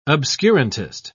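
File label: "obscurantist", British English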